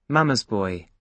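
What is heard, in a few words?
"mama's boy", British English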